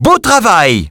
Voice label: French - dialogue, Game Results Winner